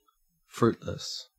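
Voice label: "fruitless", Australian English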